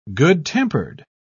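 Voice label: "good-tempered", British English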